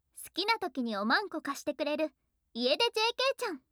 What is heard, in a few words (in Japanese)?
タイトルコール, 効果音あり; ASMR